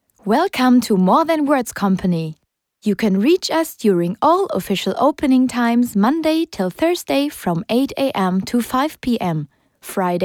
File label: Phone Announcement English